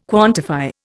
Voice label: "quantify", Indian